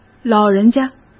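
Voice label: "老人家", Mandarin Chinese; chinese-flashcards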